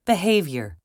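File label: "behavior", American English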